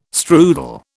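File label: "strudel", British English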